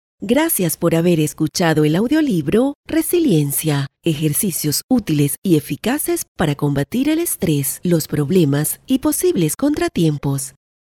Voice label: Audiobook; Spanish - Spain (Castilian)